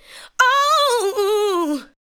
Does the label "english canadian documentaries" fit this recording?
No